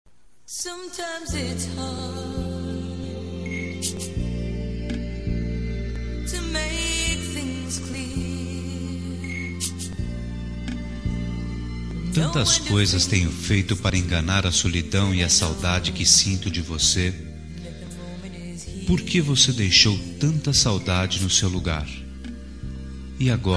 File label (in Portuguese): Romântica Com Saudades